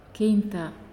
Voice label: "Two", Naasioi

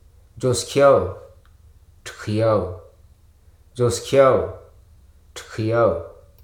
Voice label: "hack", hruso

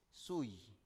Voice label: "sui", Biak

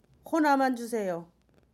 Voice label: one ex, jeju

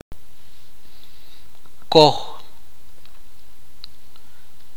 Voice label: "diente", itza

